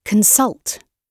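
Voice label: "consult", British English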